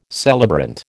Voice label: "celebrant", American

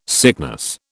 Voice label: "sickness", British

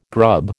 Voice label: "grub", American

